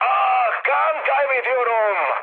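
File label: Turkish damaged pilot, mood med, speech; War Thunder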